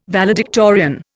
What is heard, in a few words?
"valedictorian", Indian